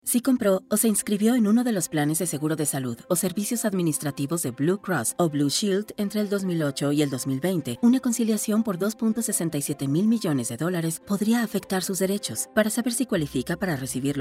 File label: spanish; blue cross blue shield class action settlement